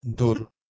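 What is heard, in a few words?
"dhur", Proto-Indo-European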